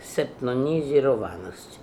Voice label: "Chainonizironness", Beelzebub pronounciations; Fourth Way

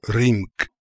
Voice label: "Rimk", pronounciations; Fourth Way; Beelzebub

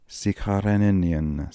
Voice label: "Sikharenenian", pronounciations; Fourth Way; Beelzebub

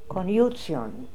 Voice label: "Koniutsion", pronounciations; Fourth Way; Beelzebub

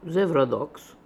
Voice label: "Zevrodox", pronounciations; Fourth Way; Beelzebub

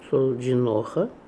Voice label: "Soldjinoha", pronounciations; Fourth Way; Beelzebub

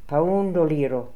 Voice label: "Poundoliro", pronounciations; Fourth Way; Beelzebub

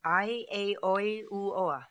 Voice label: "Aieioiuoa", Beelzebub pronounciations; Fourth Way

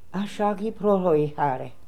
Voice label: "Ashagiprotoehary", Beelzebub pronounciations; Fourth Way

